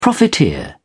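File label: "profiteer", British English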